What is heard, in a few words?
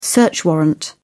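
"search warrant", British English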